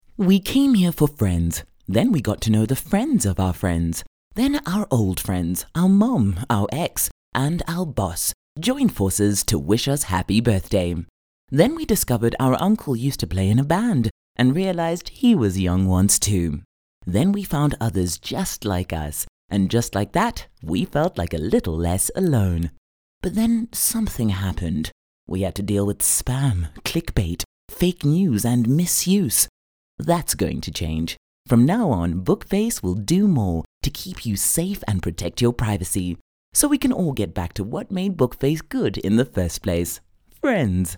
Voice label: English Conversational Emotive; OSM Talent